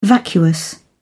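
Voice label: "vacuous", British English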